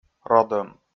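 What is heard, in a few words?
"родӧм", Komi, male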